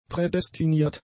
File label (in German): "prädestiniert", German; adjectives